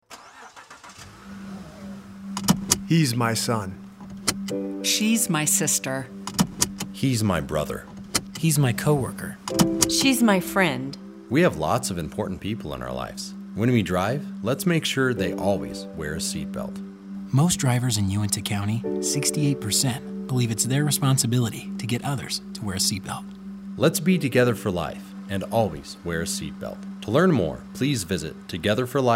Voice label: Radio Adult; Uintah